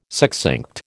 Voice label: "succinct", American

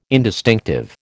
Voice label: "indistinctive", American

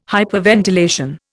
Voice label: "hyperventilation", Indian

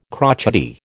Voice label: "crotchety", American

